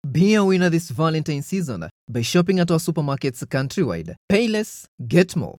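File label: English Afrikaans Male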